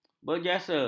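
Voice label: "berjasa", Malay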